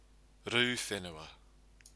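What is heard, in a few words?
"ru whenua", Māori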